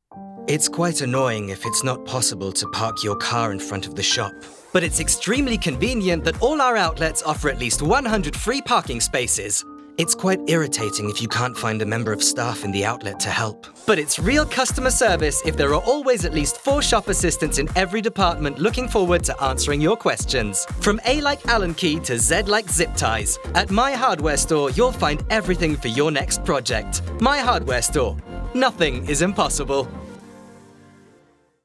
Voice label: EN Werbung Baumarkt